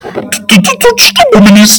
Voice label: portuguese guy shoked

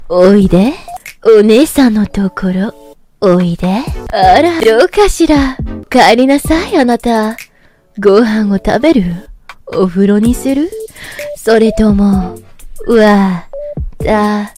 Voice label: mommy vtuber asmr voice

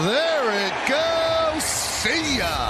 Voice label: home runs calls; michael kay

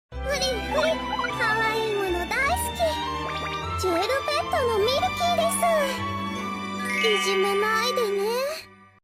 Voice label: being cute

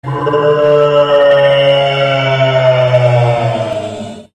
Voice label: long bruh sound effect

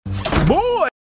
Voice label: boi sound effect

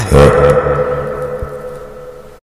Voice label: cap reverb

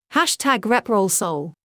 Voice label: retro hashtag adult

